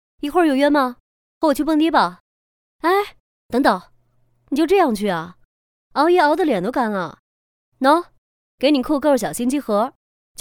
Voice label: 女 角色模仿 御姐

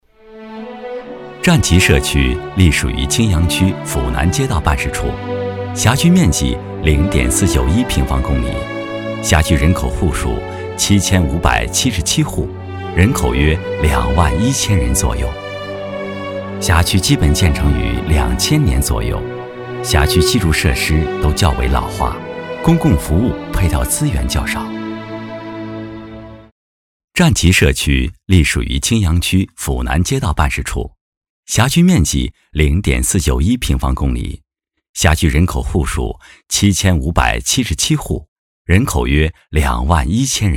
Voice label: 男, 专题; 战旗社区

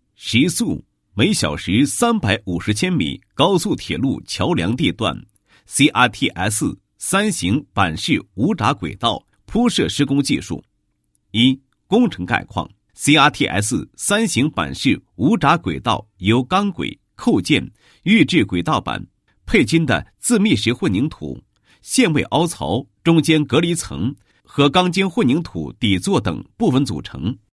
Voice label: 男 解说词; 无砟轨道铺设工法解说配音

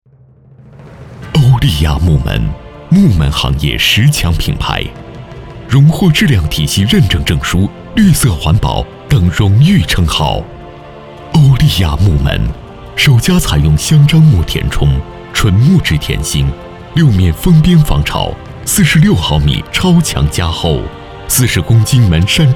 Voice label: 男-广告-木门

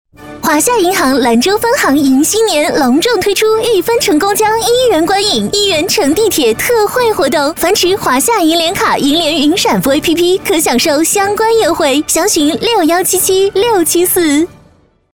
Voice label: 女 电台广告; 华夏

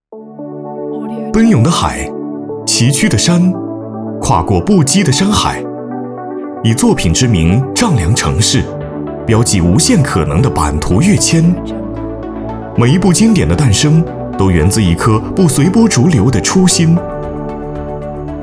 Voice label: 男 专题宣传 科技年轻活力

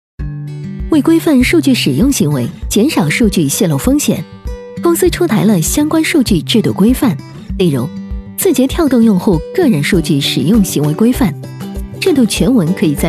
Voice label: 女 专题 商业感; 字节跳动

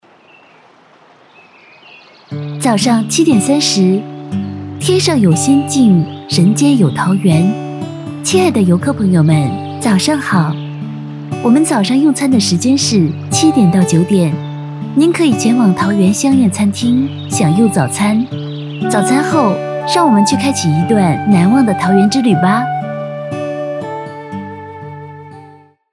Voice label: 女 彩铃 景区

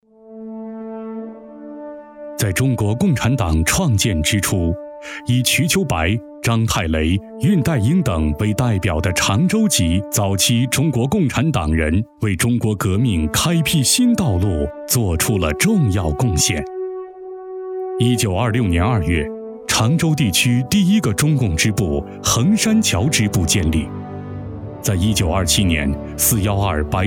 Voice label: 男 专题 温暖讲述 历史专题

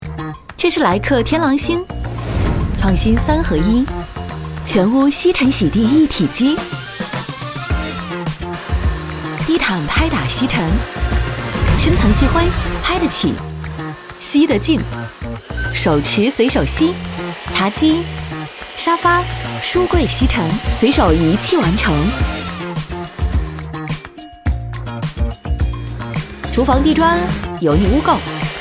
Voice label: 女 广告; 迪丽热巴; 莱克

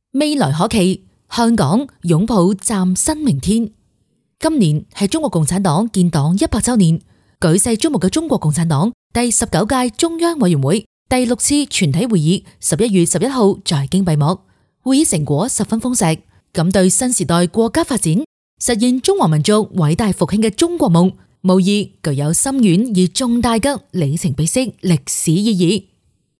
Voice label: 女 粤语 专题解说; 香港拥抱崭新明天

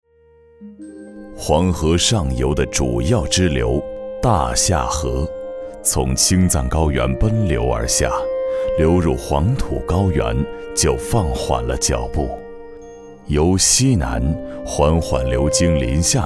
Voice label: 男,纪录片,娓娓道来,沉稳; 临夏

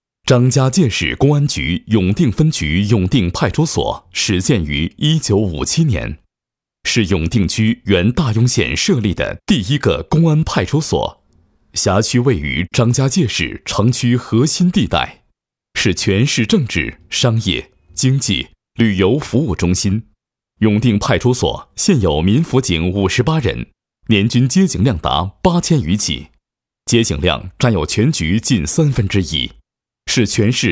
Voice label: 男 专题 党务 干声; 张家界市公安局

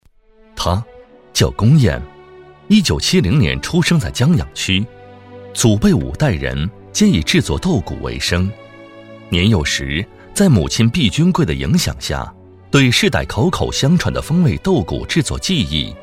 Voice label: 男 专题 人物介绍; 传承人申报片

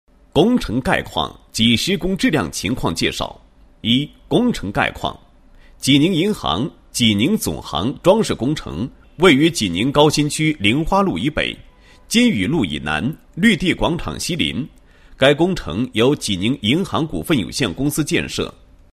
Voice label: 男27-解说; 工程概况和施工质量情况 装饰工程

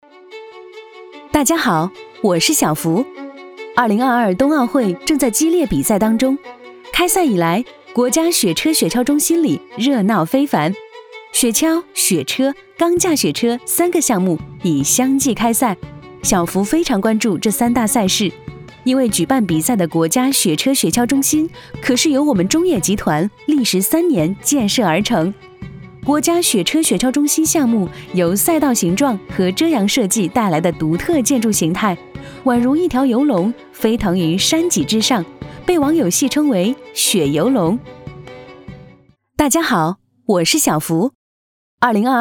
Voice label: 女 自述介绍

